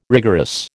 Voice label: "rigorous", American